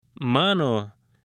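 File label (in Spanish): "mano", male; CUERPO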